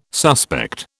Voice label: "suspect", British